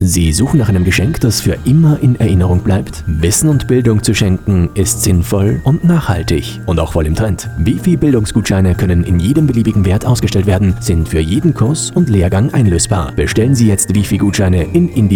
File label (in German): wifi gutschein spot